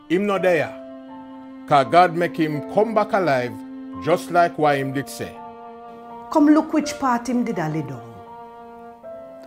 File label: verse, jamaican creole